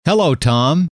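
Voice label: hello, male; trainer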